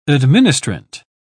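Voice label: "administrant", British English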